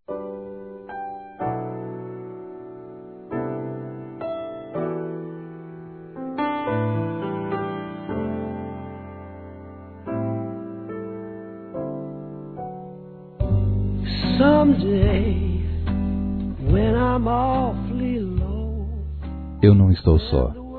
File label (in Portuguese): GERAL M; Telemensagens; Dia Dos namorados; masc; Telemensagens 2017